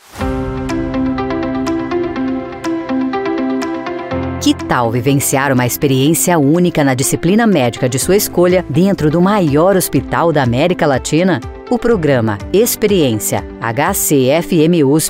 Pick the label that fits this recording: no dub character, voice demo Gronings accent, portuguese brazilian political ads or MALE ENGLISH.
portuguese brazilian political ads